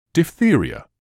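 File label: "diphtheria", British English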